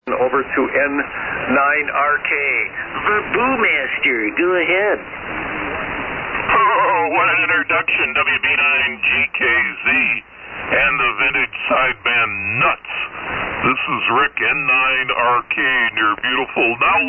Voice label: Hallicrafters HT-44 - SX-117 D-104 Mic & Dentron Clipperton Amp; Delavan,Wi.